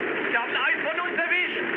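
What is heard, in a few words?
German aircraft destroyed mood high, speech; War Thunder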